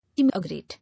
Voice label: "Immigrate", English

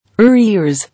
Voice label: "Arrears", English

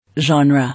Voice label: "Genre", English

